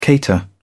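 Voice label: "cater", British English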